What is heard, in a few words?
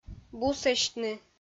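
"бусӧсьтны", Komi, female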